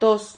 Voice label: "tos", Silabas

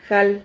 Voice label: "jal", Silabas

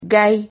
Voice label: "gay", Silabas

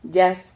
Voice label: "llaz", Silabas

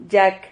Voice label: "yak", Silabas

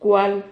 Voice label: "cual", Silabas

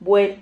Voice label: "vuel", Silabas

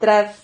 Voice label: "trad", Silabas

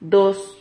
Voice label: "dos", Silabas

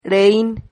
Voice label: "rehin", Silabas

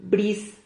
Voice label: "bris", Silabas

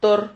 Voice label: "tor", Silabas

